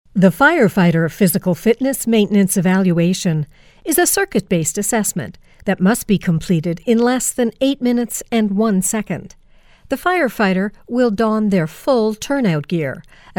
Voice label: E-learning